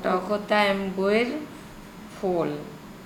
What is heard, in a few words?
"TokHota Emboer pHol", Andamanese